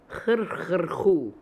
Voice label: Hrkh hr hoo, Beelzebub pronounciations; Fourth Way